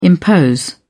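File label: "impose", British English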